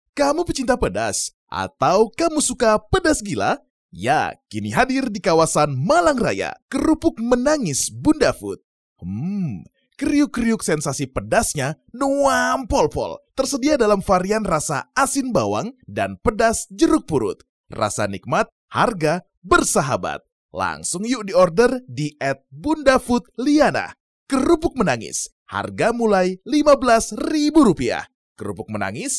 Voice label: 印尼语男样音

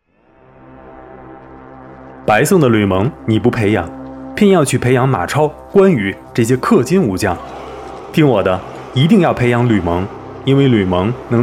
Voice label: 游戏贴片; 半藏

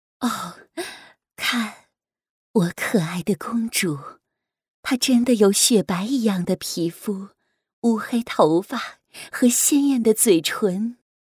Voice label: 温柔妈妈音

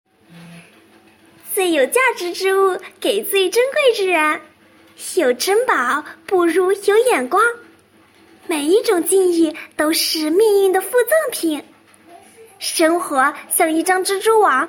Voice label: 角色音; 西施

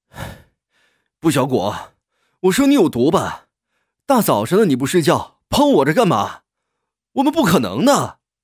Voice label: 男角色生气

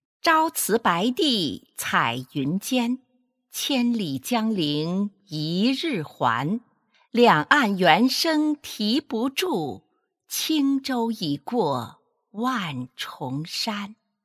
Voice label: 诗朗诵

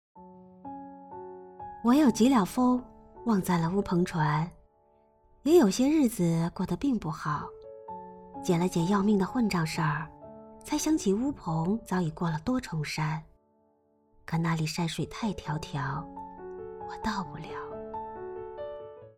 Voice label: 古风 美文; 乌篷船

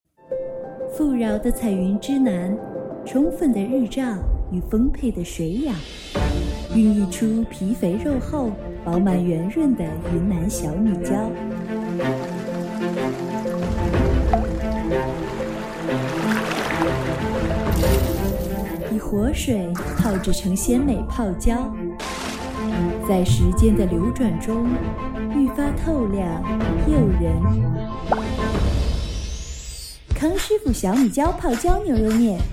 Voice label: 广告作品; 配音秀

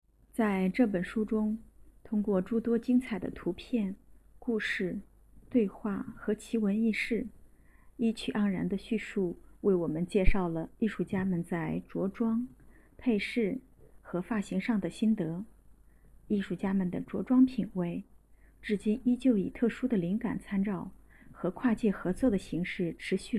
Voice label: 书稿讲解

